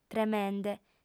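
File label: "tremende", Sardinian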